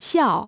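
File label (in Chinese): "笑" Chinese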